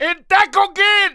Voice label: fear; dialogue; mohaa